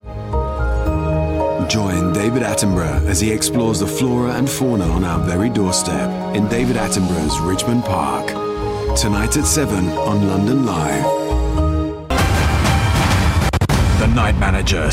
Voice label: Promo